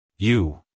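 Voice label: "you", American English, male; everyone-can-use-english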